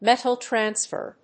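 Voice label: "metal transfer", English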